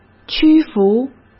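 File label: "屈服", Mandarin Chinese; hsk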